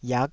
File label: "iak5", Taiwanese syllable